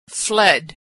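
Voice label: "fled", American English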